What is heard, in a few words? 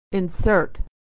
"to insert", pronunciation